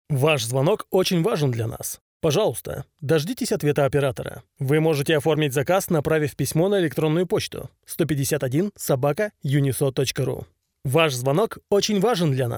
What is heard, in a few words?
ivr; YUniso